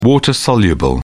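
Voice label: "water soluble", British English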